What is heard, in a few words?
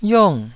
"yiong4", Taiwanese